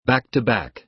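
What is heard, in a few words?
"back-to-back", American English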